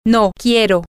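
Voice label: I want no, Spanish, female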